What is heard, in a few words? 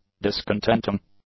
"discontenting", English